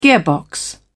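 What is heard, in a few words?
"gearbox", British English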